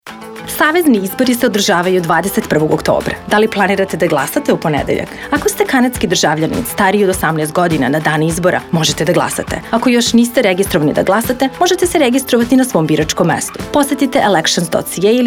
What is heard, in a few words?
Serbian, radio advert; Election Day